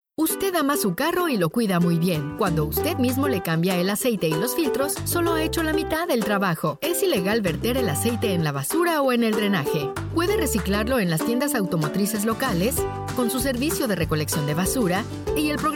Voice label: female Mexican tv comercial